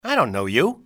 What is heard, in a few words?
dont know, english male; XBOX; civ